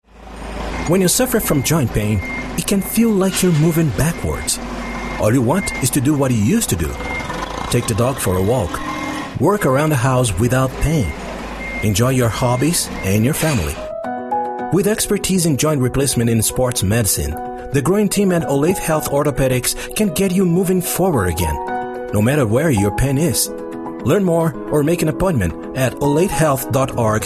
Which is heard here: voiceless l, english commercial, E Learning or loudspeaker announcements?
english commercial